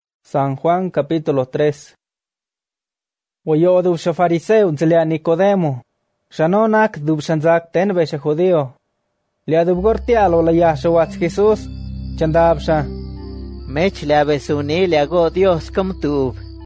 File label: Zapoteco Coatlan